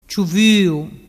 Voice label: "ciuvuu", dialettu